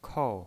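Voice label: "kou", fourth tone, pinyin voice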